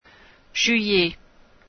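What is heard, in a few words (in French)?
"juillet", French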